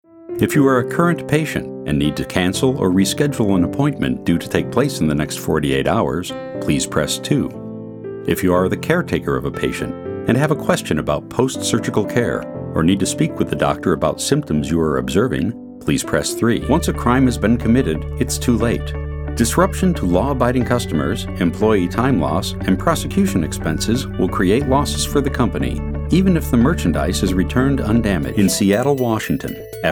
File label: Narration; Narration Demo